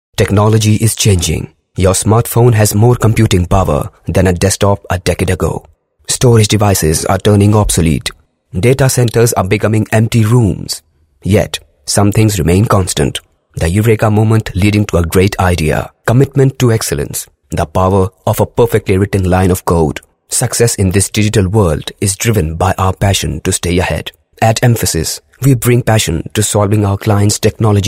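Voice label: English voice over, male voice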